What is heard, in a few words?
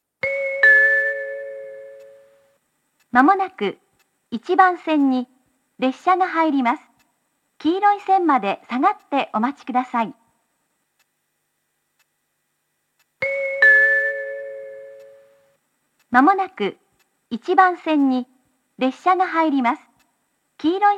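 platform 3 approaching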